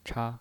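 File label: "cha", Mandarin Chinese, neutral tone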